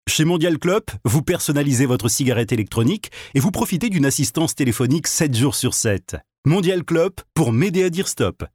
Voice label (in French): PUB VOIX OFF